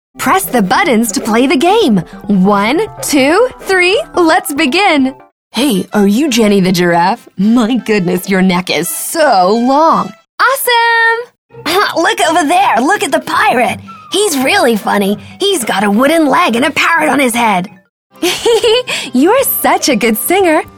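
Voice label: American, toys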